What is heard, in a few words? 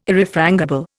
"Irrefrangible", Indian